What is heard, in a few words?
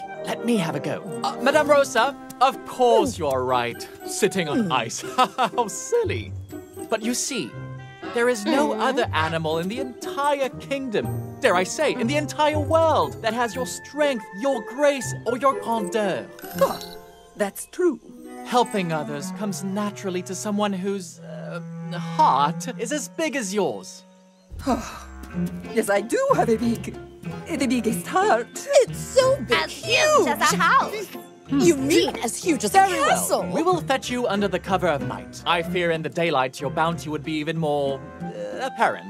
Animation UK; Belfort Lupin